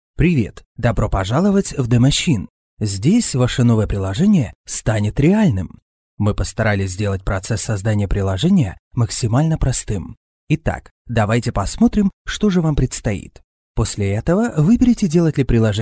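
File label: Ukrainian male voice over, upbeat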